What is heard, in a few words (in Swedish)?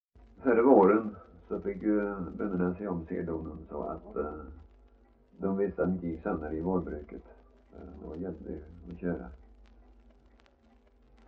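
Värö Halland, Svenska sagor dialekter; Folkminnen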